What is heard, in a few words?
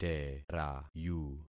"terayu", concat syllables; Affixing experiment